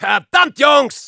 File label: negative vocalization, de; "Verdammt Jungs."; Soldier; Soldier voice commands/de